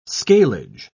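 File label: "scalage", British English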